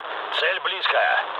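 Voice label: near waypoint, mood low, Russian; War Thunder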